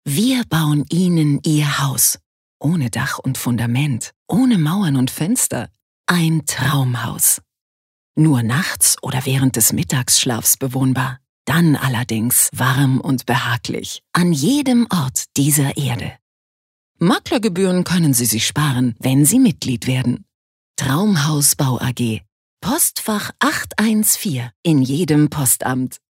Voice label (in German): Werbung Sanft